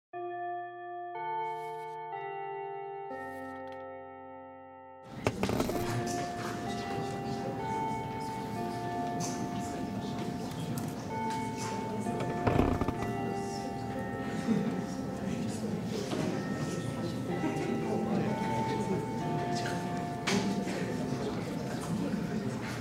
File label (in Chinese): 聊天, ASMR; 移動至室外課程時的聊天